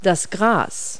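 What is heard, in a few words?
"grass", English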